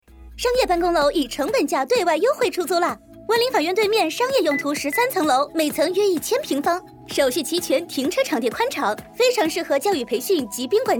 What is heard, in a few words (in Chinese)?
激情广告快节奏招租短视频